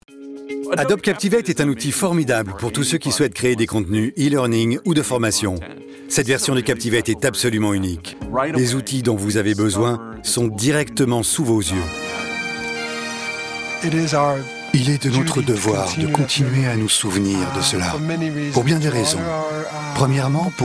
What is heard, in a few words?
Voice over